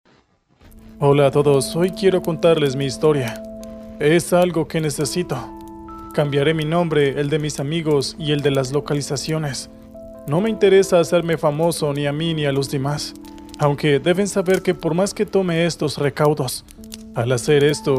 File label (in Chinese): 西班牙男声